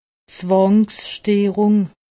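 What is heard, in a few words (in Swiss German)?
"zwangsteerung", Haut Rhin; medecine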